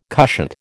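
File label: "cushat", American English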